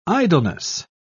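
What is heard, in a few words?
"idleness", English, British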